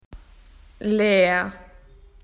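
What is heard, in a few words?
"empty", Australian English; PictureDictionary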